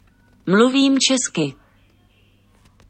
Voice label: Czech